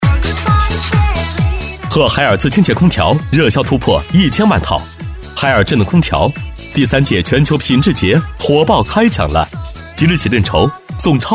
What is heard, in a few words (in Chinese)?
【促销】海尔空调